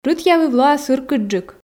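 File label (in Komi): рытъявыв лоас ыркыдджык, Komi, female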